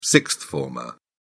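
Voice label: "sixth-former", British English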